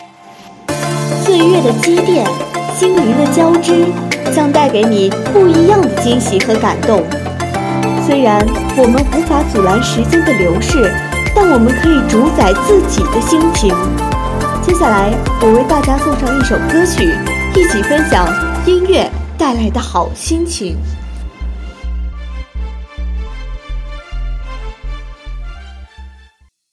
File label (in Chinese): 片花